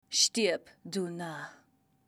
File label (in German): Narr